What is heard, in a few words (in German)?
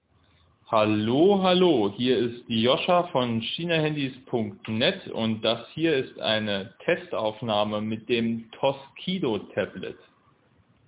Tablet Mikrofon Test; toscido t pad